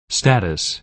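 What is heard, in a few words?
"status", American English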